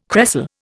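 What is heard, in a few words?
"cresol", Indian English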